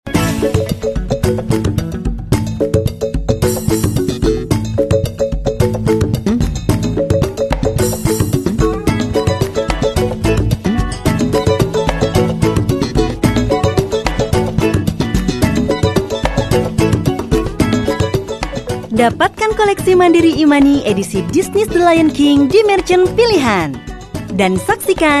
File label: Malay voice sample